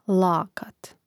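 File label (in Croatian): "lakat", Croatian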